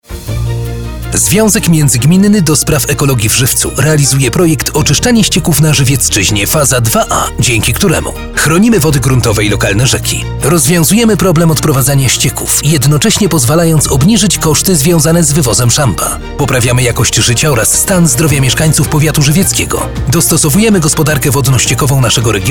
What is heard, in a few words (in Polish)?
spot radiowy